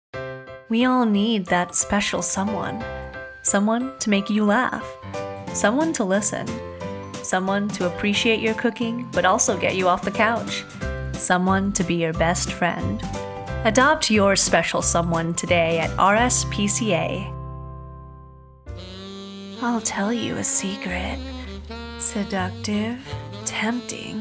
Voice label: Commercial